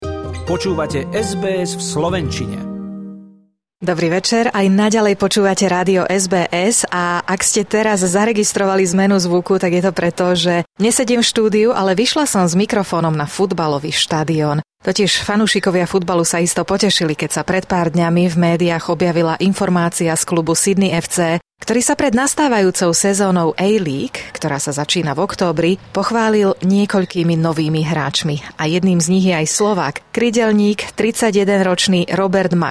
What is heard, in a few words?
slovak; sbs